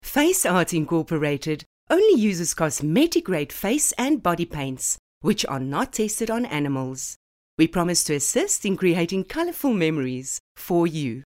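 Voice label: English Corporate South African Neutral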